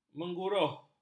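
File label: "mengguruh", Malay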